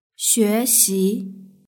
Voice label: "xuexi", Chinese; hsk1